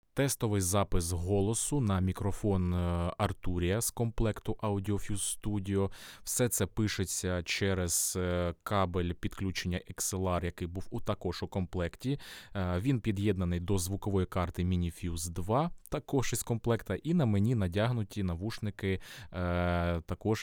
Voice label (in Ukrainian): arturia mic test golosu; Arturia Mic - Тест Голосу